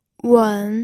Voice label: "wen3", pinyin

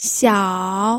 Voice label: "xiao3", pinyin

